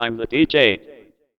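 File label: vocal male, Dance Vocals, spoken words; Best Service ProSamples vol.12; AKAI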